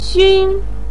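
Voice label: "xun", Mandarin pinyin, first tone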